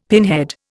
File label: "pinhead", Indian; Dictionary